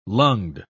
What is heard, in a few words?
"lunged", pronounce